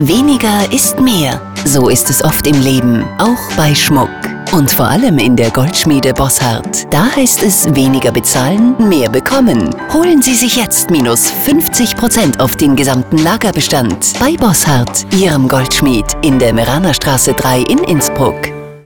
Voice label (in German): Radiospot; Bosshart; Goldschmiede